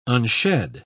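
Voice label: "unshed", British English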